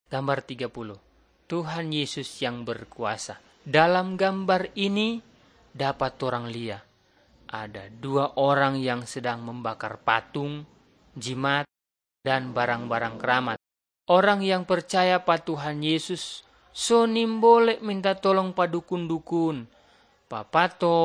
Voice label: malay manado; good news